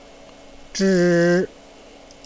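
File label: "zhi1", Chinese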